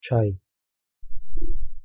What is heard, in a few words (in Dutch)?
"čȁj", Servo-Kroatisch; thee-in-andere-talen